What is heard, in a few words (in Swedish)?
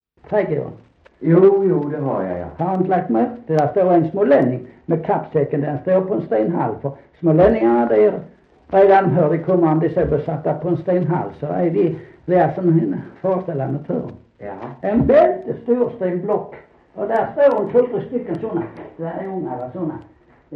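Sankt Olof Skåne, Svenska sagor, dialekter; Folkminnen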